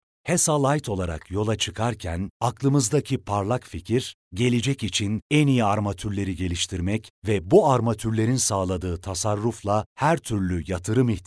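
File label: Turkish, male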